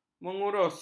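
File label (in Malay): "mengurus", Malay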